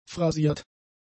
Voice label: "phrasiert", German; adjectives

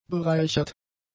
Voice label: "bereichert", German; adjectives

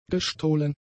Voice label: "gestohlen", german; adjectives

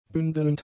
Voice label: "bündelnd", German; adjectives

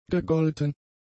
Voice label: "gegolten", german; adjectives

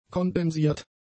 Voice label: "kondensiert", German; adjectives

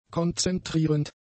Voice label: "konzentrierend", German; adjectives

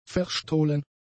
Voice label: "verstohlen", German; adjectives

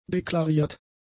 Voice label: "deklariert", german; adjectives

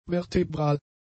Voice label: "vertebral", German; adjectives

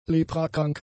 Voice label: "leprakrank", German; adjectives

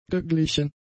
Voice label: "geglichen", german; adjectives

